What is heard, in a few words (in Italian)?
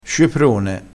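"sceprone", dialettale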